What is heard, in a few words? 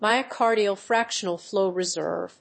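"myocardial fractional flow reserve", English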